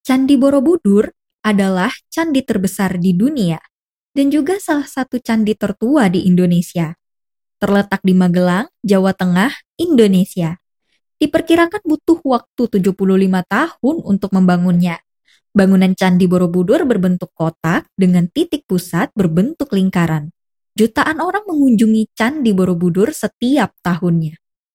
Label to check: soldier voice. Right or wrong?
Wrong